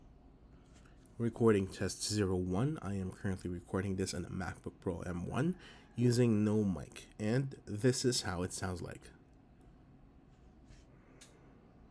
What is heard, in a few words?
Voice No mic